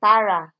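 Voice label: "para", Nigerian Pidgin, female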